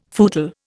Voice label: "footle", Indian

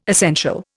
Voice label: "essential", Indian

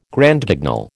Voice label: "Grand Guignol", American

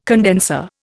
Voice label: "condenser", Indian

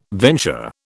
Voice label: "venture", British

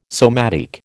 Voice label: "somatic", American

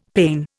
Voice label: "pane", Indian; Dictionary

